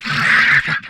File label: humanoid male; Stellaris; humanoids